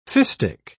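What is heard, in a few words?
"fistic", British English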